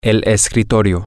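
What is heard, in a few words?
Spanish, male; desk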